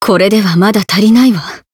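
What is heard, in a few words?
Voice growth, Japanese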